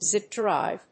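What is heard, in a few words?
"Zip drive", English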